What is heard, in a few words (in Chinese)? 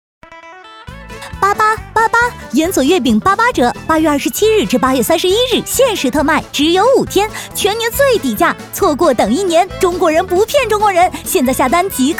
女; 中秋节; 元祖月饼88折